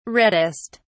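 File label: "reddest", English, British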